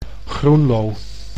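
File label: "Groenlo", Dutch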